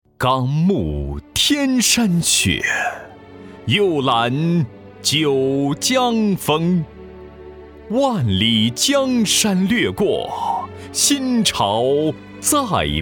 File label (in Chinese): 朗诵 水调歌头